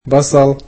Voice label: "onions", egyptian

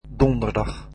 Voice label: "Thursday", dutch

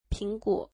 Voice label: apples, mandarin

